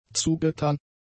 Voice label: "zugetan", German; adjectives

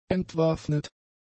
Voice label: "entwaffnet", German; adjectives

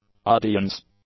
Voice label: "audience", English; nouns

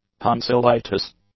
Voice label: "tonsillitis", English; nouns

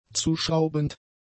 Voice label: "zuschraubend", German; adjectives

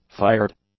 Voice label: "fired", english; adjectives

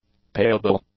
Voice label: "payable", English; adjectives

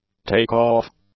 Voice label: "takeoff", english; nouns

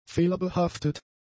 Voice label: "fehlerbehaftet", german; adjectives

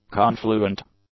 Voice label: "confluent", English; adjectives

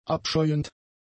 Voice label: "abscheuend", German; adjectives

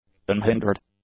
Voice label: "unhindered", english; adjectives

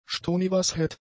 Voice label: "stonewashed", German; adjectives

